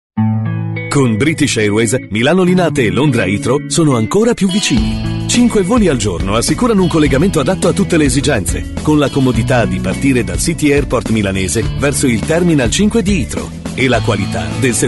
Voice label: Italian

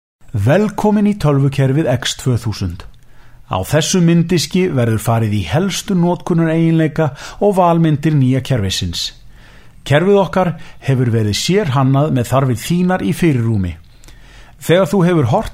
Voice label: Icelandic

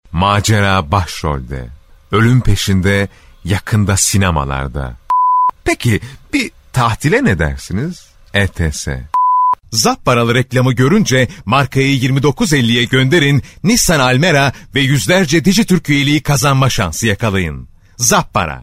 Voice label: Turkish

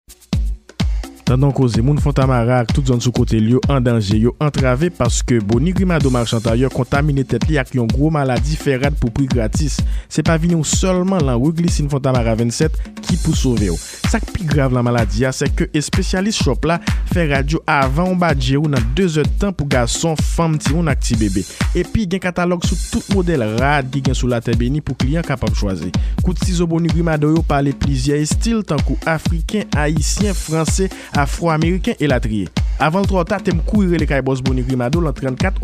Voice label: Haitian

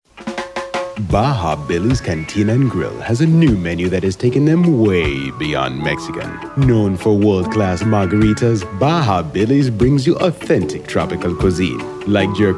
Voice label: Caribbean

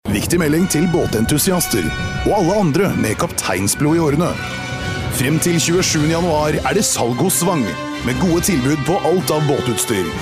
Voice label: Norwegian

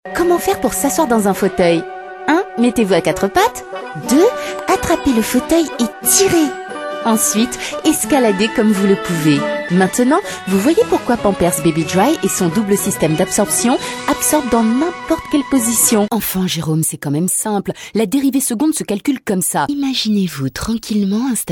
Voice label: French

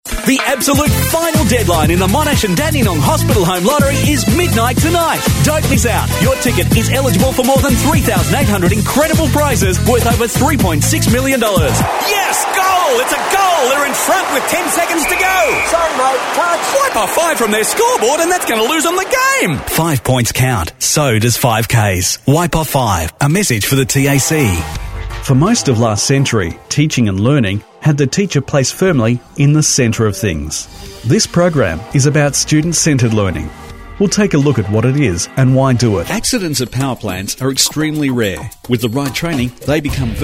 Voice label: Australian

